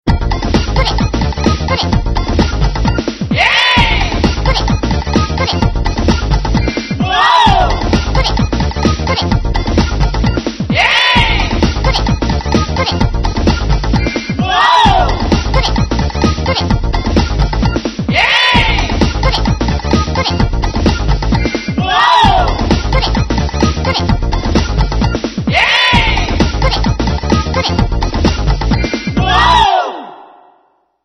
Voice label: girl